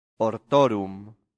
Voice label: "hortorum", latin; santillana

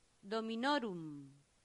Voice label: "dominorum", latin; santillana

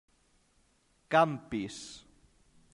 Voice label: "campis", latin; santillana

